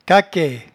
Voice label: "caquaie", patois jura

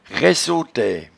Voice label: "raissotaie", patois jura

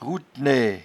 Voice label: "rouetnee", patois jura

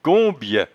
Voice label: "combye", patois jura

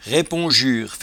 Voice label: "raiponjure", patois jura

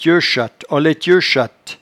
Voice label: "tieuchatteenlaitieuchatte", patois jura

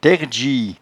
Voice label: "tairdgie", patois jura

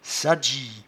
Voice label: "sadgie", patois jura

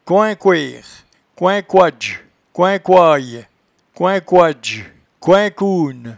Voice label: "coincoere", patois jura

